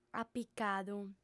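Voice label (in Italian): "apicadu", sarda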